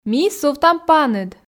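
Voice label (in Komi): ми сувтам паныд, Komi, female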